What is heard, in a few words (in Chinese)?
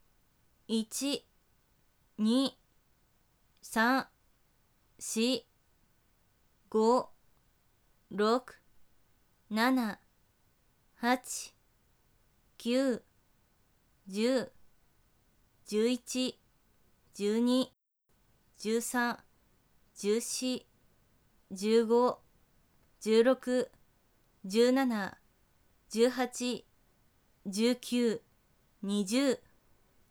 普通20次, 女生为你进行健身计数的语音 姐姐; 仅计数